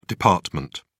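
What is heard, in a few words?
"dept", English, British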